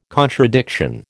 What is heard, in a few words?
"contradiction", American